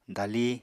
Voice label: "dali", Apatani